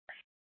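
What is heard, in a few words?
police dispatch radio, scanner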